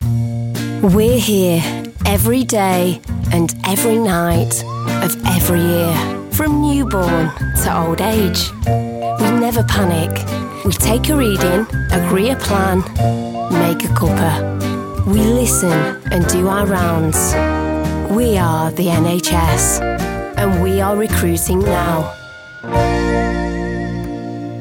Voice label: natural

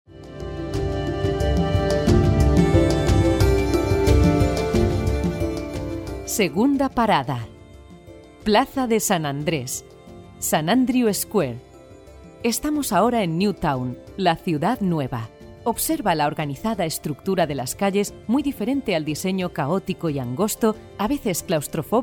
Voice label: castilian female audio guide

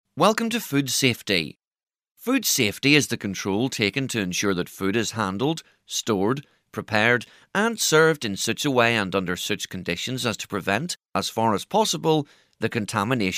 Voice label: e-learning

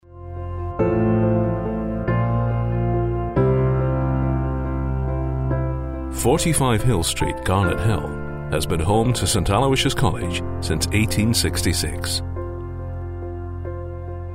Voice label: corporate

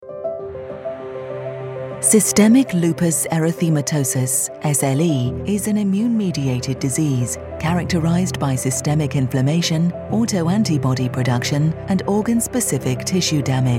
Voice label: medical narration

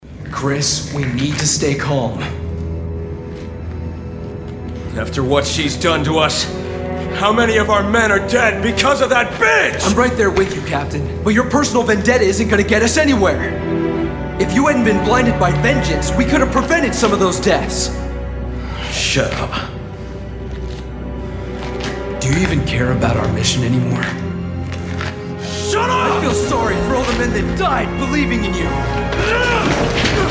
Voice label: american voice, videogame

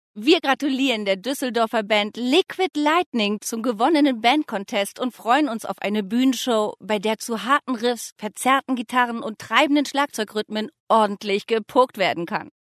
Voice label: presentation

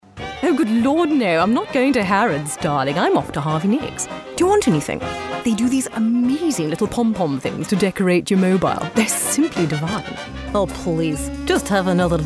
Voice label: character

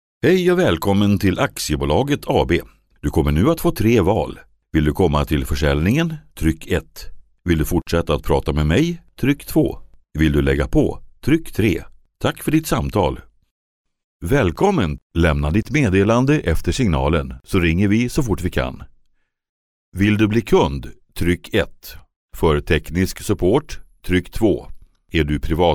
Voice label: swedish male ivr

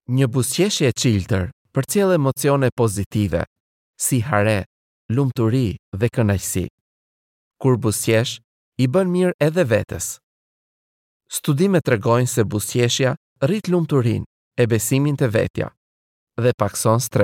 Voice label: albanian male voice montage

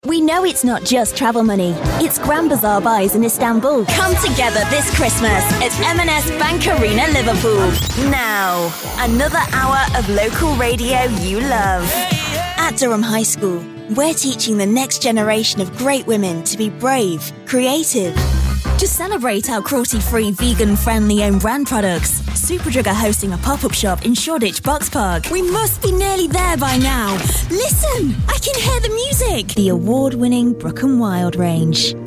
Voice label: commerical

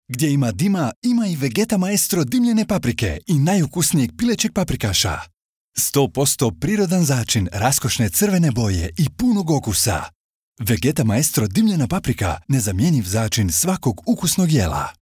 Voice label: Bosnian voice over commercial

